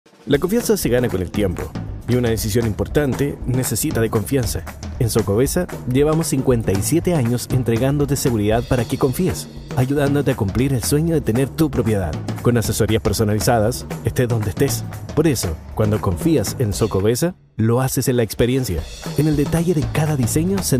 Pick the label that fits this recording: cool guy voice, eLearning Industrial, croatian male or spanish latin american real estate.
spanish latin american real estate